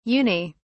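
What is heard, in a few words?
"uni", British English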